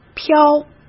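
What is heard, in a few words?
"飘", Mandarin Chinese; chinese-flashcards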